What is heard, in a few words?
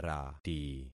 "rati", concat syllables; Affixing experiment